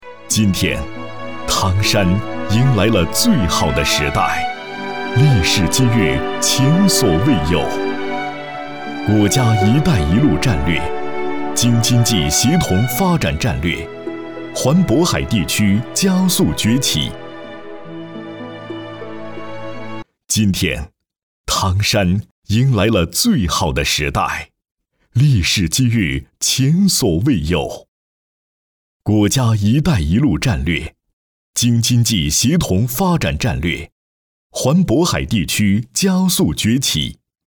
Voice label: 男 专题; 唐山一带一路

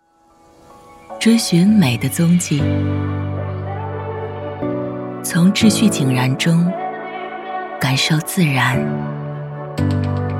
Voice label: 女 广告宣传 卫浴高级感成熟优雅走心; 高第GODI宣传片

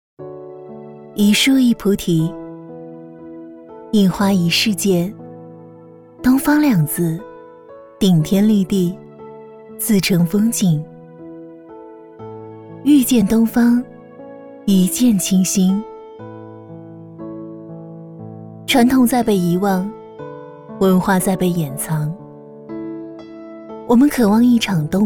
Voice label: 女, 成熟广告; 东方美学

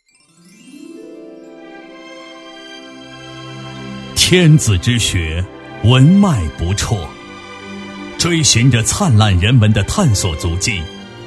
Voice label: 男 学校类; 乐山二中

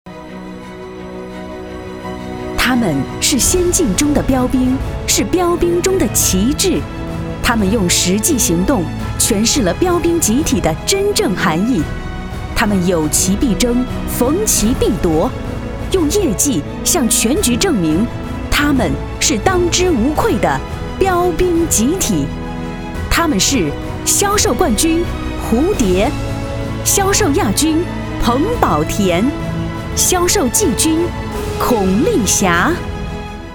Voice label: 女 颁奖; 感恩力量

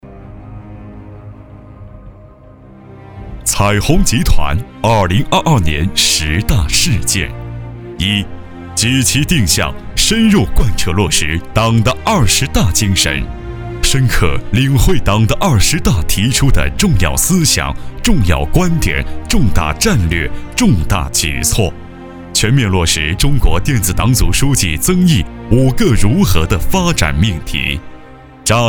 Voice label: 男 专题; 彩虹集团

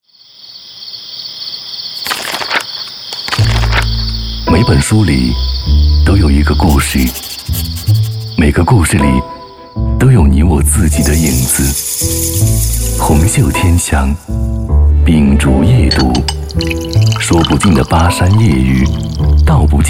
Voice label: 男-片头片花; 今晚读书

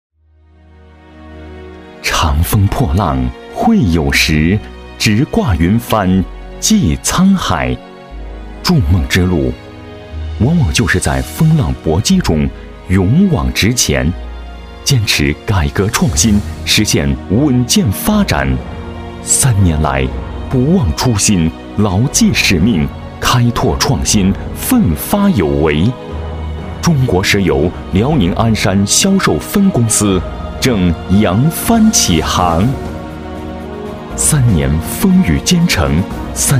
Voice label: 男 大气; 中石油鞍山分公司

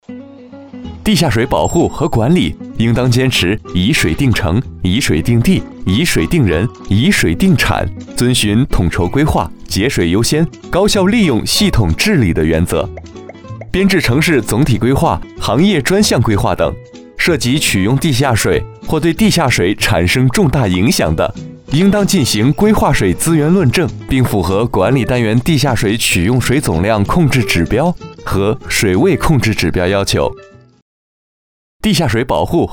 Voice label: 男, 地下水

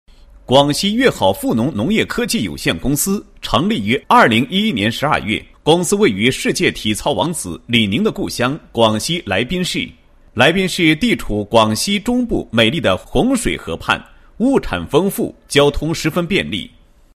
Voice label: 男 企业专题; 某农业科技有限公司简介

